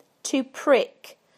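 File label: "to prick", British English; PictureDictionary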